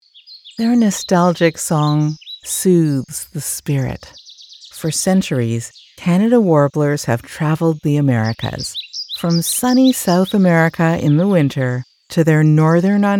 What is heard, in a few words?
Documentary Narration